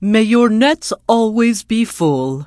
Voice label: NPC Tuskarr Female Farewell; Warrior

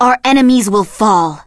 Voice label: Blood Elf Female Standard Greeting

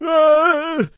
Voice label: Peasant Warcry

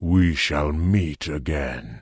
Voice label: Tauren Male Elder NPC Farewell

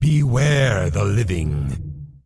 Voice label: Undead Male Warrior Farewell; NPC

